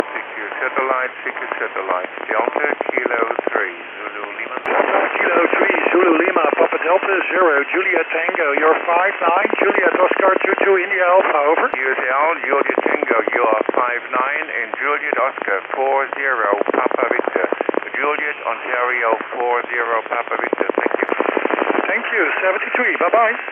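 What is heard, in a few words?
radio contact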